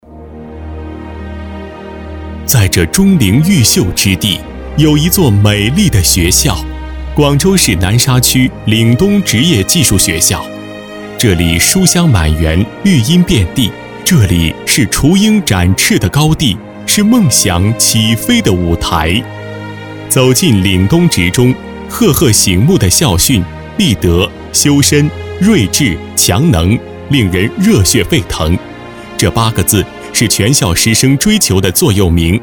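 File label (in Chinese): 国语 男声 专题（浑厚 大气） 大气浑厚磁性 沉稳; 广州市南沙区岭东职业技术学校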